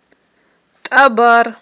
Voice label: "тIабар", Aghul